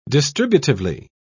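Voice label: "distributively", British English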